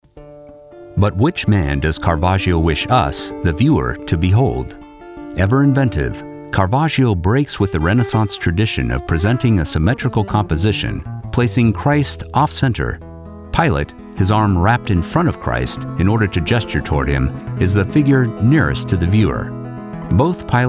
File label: Narration Demo